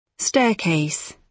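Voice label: "staircase", British English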